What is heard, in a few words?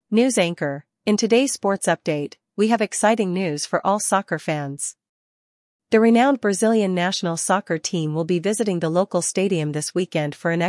news anchor; celpip practice test; listening practice test